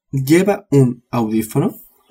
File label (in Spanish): "audifono" spanish